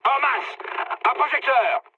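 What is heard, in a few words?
searchlite, mood high, French; War Thunder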